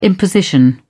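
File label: "imposition", British English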